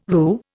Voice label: "roux", Indian

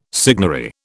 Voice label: "signary", British

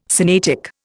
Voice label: "Sinaitic", Indian

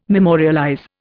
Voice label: "memorialize", Indian

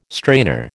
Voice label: "strainer", American

